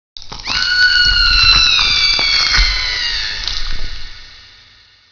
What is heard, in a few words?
tell a story